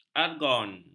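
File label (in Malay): "argon", Malay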